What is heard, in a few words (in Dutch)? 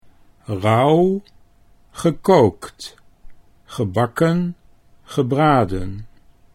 "rauwgekookt", Dutch